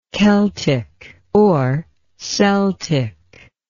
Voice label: "celtically", English